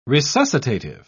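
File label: "resuscitative", English, British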